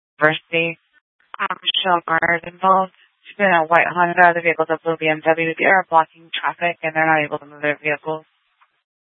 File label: Sheriffs Office, scanner